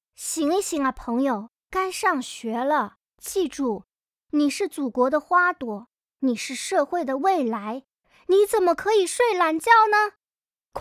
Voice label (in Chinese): 起床 学生; Hanser手机提示音包